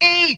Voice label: "ay", voice

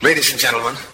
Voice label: ladies and gentlemen announcer

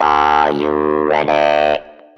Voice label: "are you ready", robot; Voice; introductions

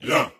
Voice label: "yo", voice

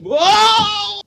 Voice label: "woah" falls; ooh ahh

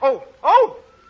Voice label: "oh oh" male; ooh ahh

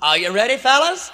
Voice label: are you ready fellows, announcer; Voice; introductions

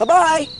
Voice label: "bye bye" male; Voice; expletives

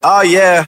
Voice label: "ah yeah", voice

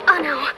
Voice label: "oh no" girl

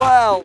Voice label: "woah" boy; ooh ahh

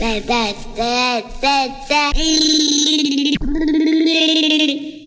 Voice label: dah dah dada dada dah, Voice gibbrish

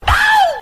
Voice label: ow male

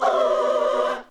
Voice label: "ooh", Voice

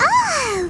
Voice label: "oh" girl

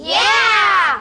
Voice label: "yeah" kids; ooh ahh

